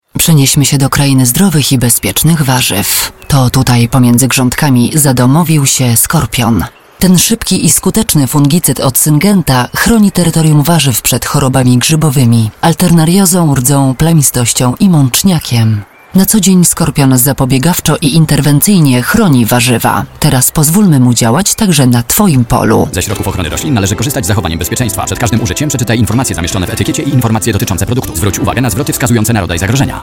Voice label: Female VO Commercial

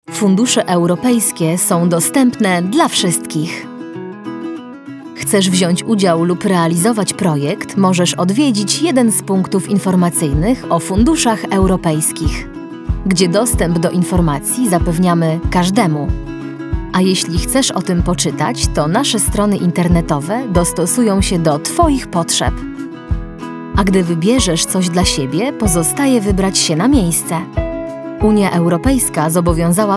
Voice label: Female VO Corporate

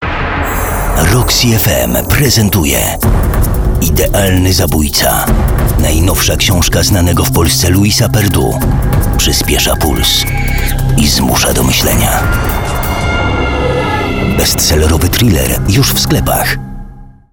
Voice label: Male VO Trailer

